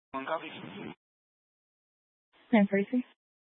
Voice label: Fire Department Dispatch; Grand Junction

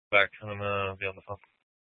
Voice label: Sheriff Dispatch; Mesa County

